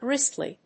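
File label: "gristly", English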